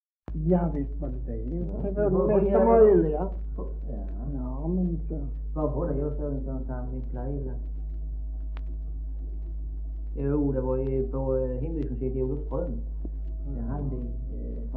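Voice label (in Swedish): Maglehem Skåne, Svenska sagor dialekter; Folkminnen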